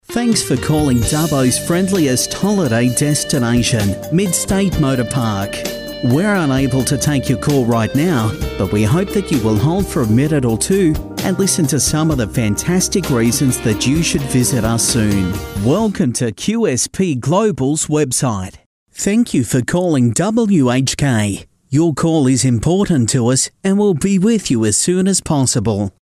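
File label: Australian English, male, voice over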